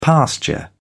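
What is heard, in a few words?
"pasture", British English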